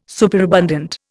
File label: "superabundant", Indian